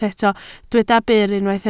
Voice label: "byr", Welsh

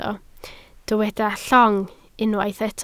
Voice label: "llong", Welsh

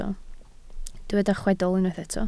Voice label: "chwedl", Welsh

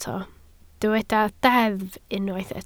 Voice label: "deddf", Welsh

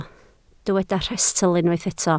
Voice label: "rhestl", Welsh

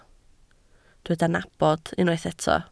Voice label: "nabod", Welsh

